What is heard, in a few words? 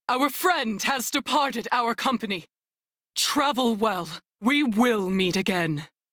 Crew Died, Female; Dialog; en; Warframe; Railjack Crew; New Loka